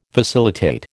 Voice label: "facilitate", American